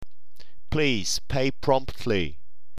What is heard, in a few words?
pay, tongue twisters; Please pay promptly.